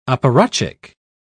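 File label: "apparatchik", British English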